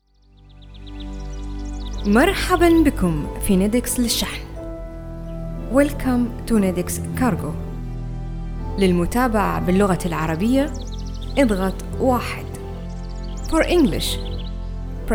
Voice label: MSA IVR, voiceover

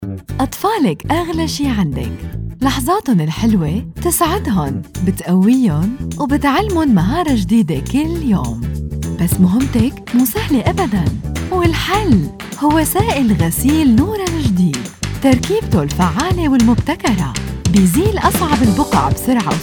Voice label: syrian, syrian voiceover

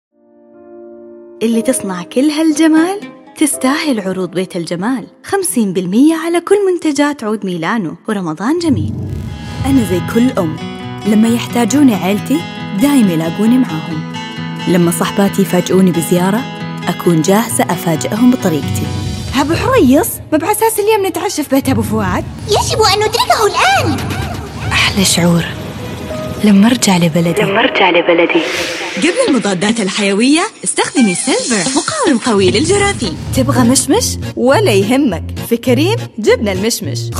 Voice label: saudi Commercials

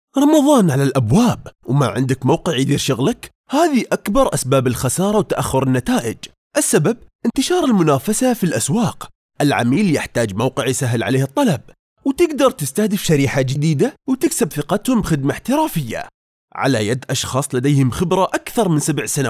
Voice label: Emirati, Emirati Voiceover

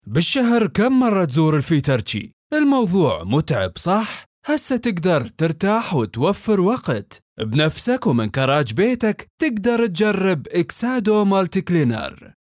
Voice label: Iraqi

